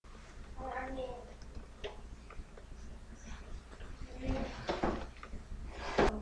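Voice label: "lick", spoken word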